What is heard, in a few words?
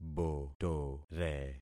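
"botore", concatenated syllables; Affixing experiment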